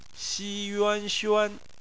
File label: "xuan", Chinese